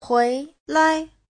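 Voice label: "huílai", Mandarin Chinese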